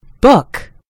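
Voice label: "book", American English; gcompris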